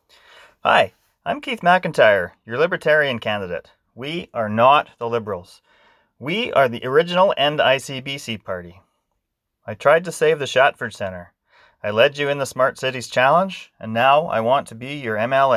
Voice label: radio ad